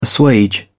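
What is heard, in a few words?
"assuage", British English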